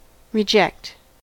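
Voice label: "reject", US English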